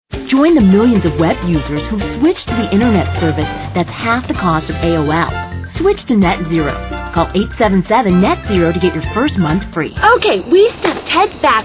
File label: commercial